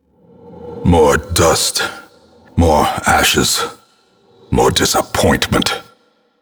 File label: Narration; Darkest Dungeon; death